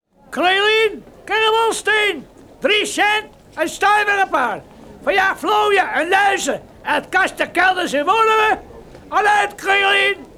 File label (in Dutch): straatroep carbonsteen